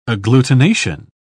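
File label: "agglutination", British English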